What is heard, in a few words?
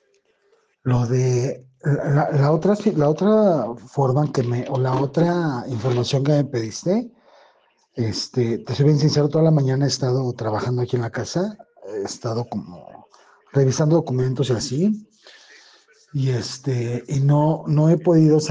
whatsapp ptt